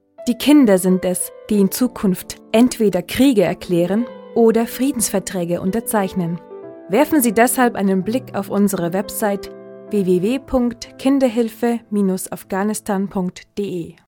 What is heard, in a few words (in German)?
Werbung Kinderhilfe Reminder